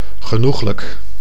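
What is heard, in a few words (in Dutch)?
"genoeglijk", Dutch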